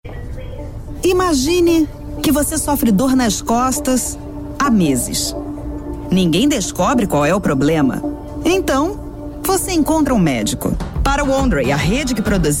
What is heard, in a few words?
portuguese brazilian narration